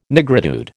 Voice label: "nigritude", American

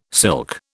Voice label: "silk", British

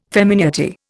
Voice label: "femineity", Indian

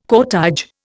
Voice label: "cortège", Indian

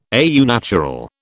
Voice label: "au naturel", British

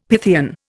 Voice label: "Pythian", Indian; Dictionary

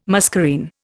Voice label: "muscarine", Indian

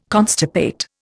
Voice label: "constipate", Indian

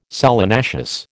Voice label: "solanaceous", American

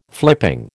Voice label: "flipping", American

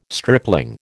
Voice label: "stripling", American

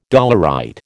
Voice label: "dolerite", American

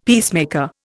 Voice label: "peacemaker", Indian; Dictionary

